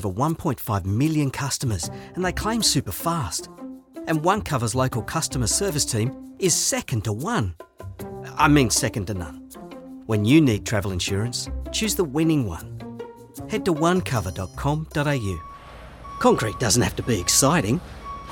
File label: Australian commercial